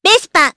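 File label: voice, Japanese; Hanus; Vespa; kingsraid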